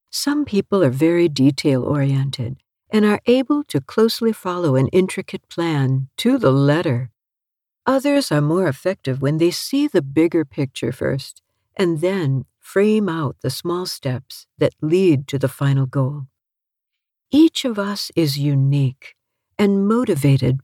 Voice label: From an e-learning article on TIME MANAGEMENT

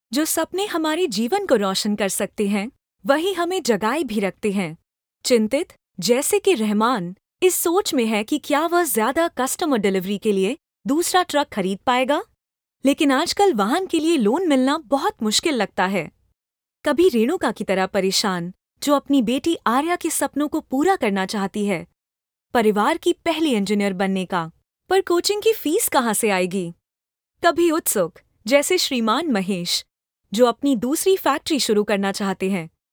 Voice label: Hindi VO Commercial Gold Loan

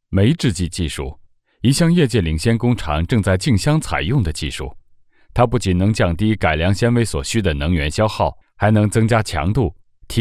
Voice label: Mandarin Industrial

